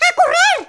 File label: "Runaway", Spanish